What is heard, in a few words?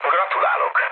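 player killed enemy, mood low, Hungarian; War Thunder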